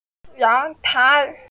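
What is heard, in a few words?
"yang2 tai2", Mandarin Chinese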